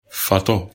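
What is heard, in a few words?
"fato", Bambara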